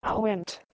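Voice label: "Hweh1nt", Proto-Indo-European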